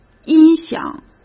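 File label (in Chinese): "音响", Mandarin Chinese; hsk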